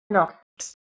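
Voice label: "nokwts", Proto-Indo-European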